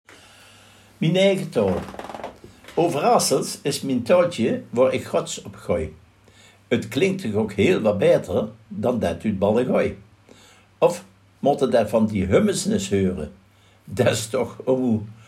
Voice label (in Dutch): dialect overasselt; verenigingsgebouw